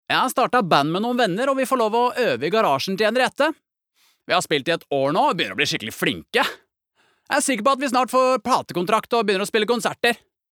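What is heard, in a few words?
Norwegian dub young adult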